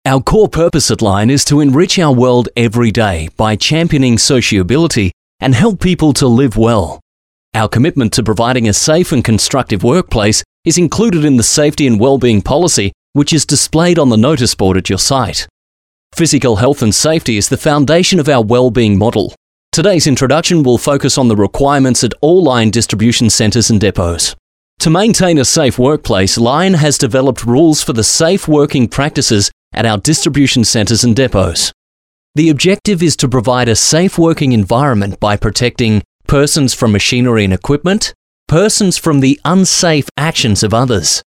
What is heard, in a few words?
Male Talent corporate